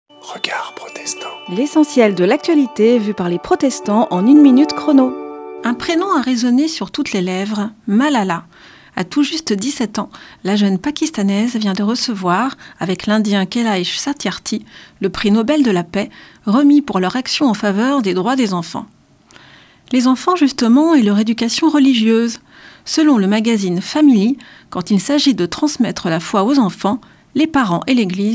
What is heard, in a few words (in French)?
Spot radio; Regards protestants